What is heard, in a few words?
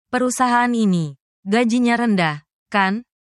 indonesian phrases